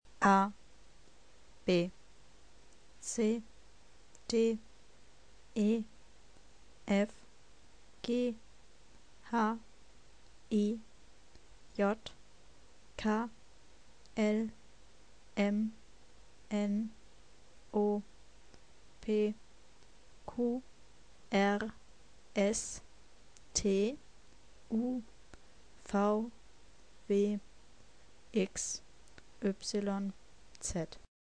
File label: German alphabet